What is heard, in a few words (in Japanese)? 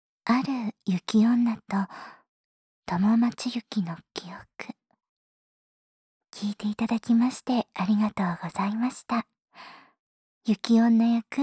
フリートーク